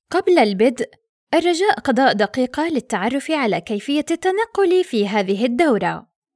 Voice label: arabic e-learning